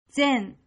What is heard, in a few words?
"Zen", Japanese